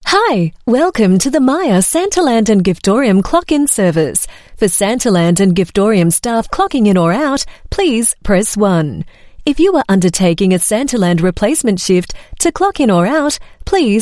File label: AU MidAtlantic English IVR, Australian English Female; Myer Australia